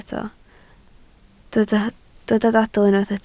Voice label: "dadl", Welsh

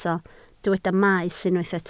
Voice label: "maes", Welsh

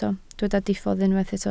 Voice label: "diffodd", Welsh

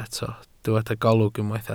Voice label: "golwg", Welsh

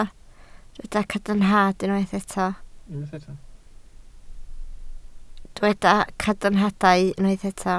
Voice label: "cadarnhadau", Welsh

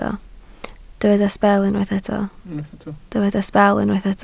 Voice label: "sbel", Welsh

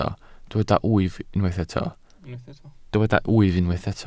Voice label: "wyf", Welsh

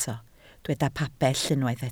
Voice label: "pabell", Welsh

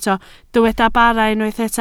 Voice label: "bara", Welsh

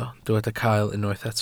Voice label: "cael", Welsh

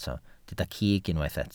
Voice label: "cig", welsh

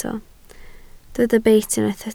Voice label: "beit", Welsh

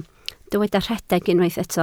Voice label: "rhedeg", Welsh

